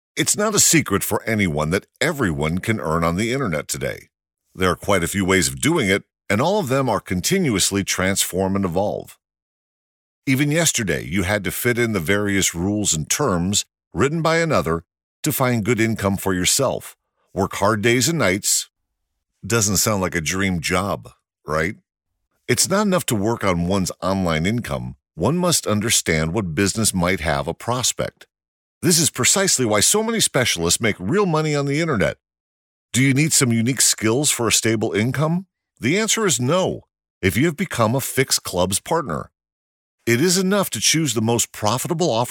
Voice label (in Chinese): 外籍男 招聘专题